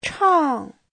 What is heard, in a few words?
"chang4", Chinese